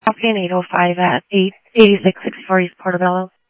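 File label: Mesa Police Department, scanner audio; Mesa Simulcast; MESA PD Superstn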